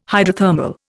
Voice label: "hydrothermal", Indian

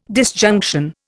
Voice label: "disjunction", Indian

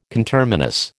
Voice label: "conterminous", American

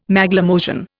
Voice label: "Maglemosian", Indian

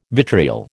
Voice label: "vitriol", American

